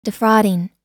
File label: "defrauding", us